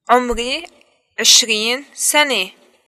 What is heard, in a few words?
introductions i am 20 years old, arabic levantine